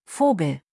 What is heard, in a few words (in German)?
"Vogel", Deutsch nachnamen